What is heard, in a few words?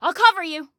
Self Task Cover, Female; Female 02